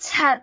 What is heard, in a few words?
"cat3", cantone; microsoft Hiu Maan